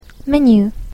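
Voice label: "menu", English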